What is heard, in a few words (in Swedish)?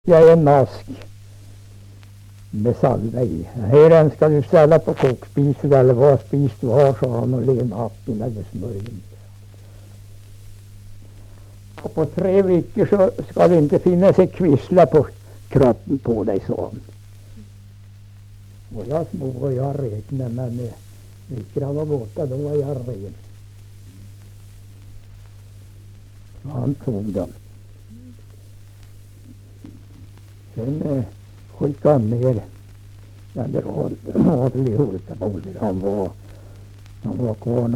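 Lerbäck Närke, dialekter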